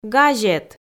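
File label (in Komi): "газет", Komi, female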